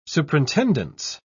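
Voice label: "superintendence", English, British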